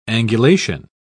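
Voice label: "angulation", British English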